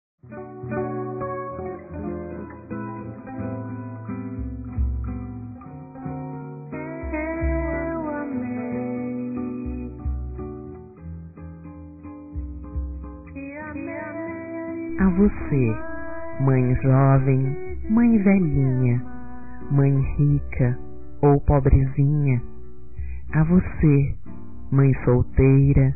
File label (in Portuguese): Dia das Mães Homenagem Feminino; Telemensagens 2017